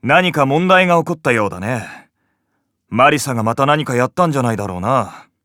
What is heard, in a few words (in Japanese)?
エラー, PCシステムボイス